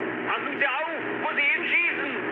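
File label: German player friendly fire mood high, speech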